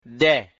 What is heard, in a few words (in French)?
"de" ouvert, mina